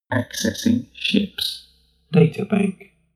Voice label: male status report